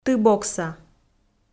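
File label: "тыбокса", Komi, female; audio dict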